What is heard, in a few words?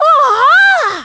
Amazed